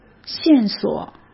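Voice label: "线索", Mandarin Chinese; hsk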